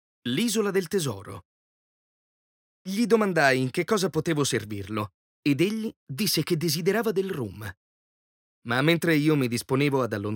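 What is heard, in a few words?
Italian male voice over, narrative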